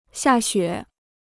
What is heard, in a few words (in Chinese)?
"下雪", Chinese